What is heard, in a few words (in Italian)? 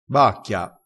"bacchia", crespinese